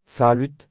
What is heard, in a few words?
hello, Breton; Salud